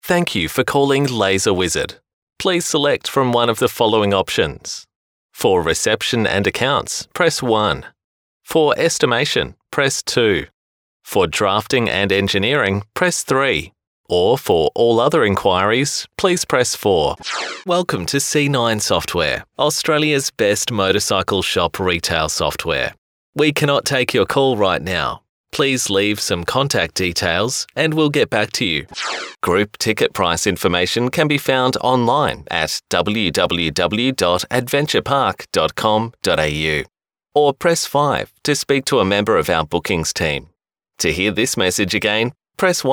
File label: ivr